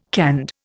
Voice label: "canned", Indian